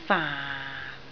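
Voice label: "faan4", Cantonese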